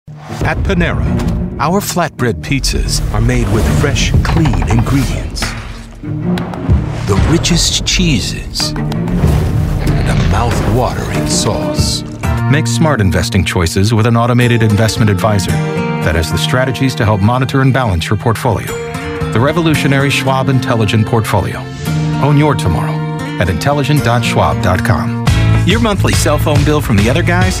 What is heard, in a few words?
men, commercial